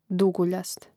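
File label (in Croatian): "duguljast", Hrvatski; mreznik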